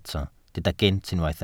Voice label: "gynt", Welsh

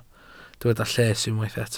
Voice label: "lles", Welsh

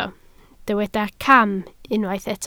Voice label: "cam", Welsh

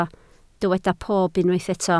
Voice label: "pob", Welsh

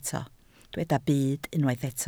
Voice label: "byd", Welsh

